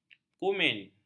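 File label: "kumin", Malay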